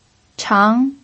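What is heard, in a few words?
"chang", pinyin, second tone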